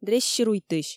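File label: "дрессируйтысь", Komi, female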